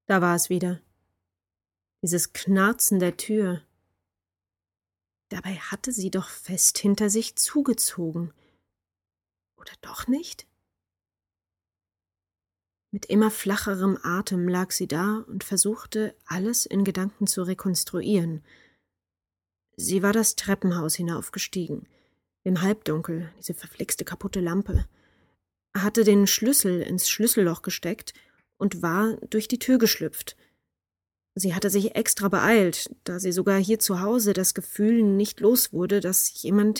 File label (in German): Audio Krimi